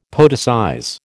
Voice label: "poeticize", American